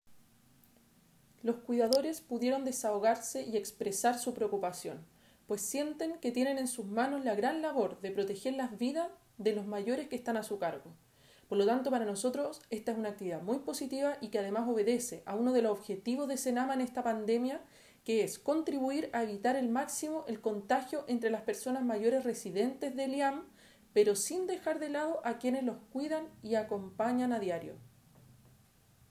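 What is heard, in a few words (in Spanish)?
Cuña; Salud Mental para Cuidadores ELEAM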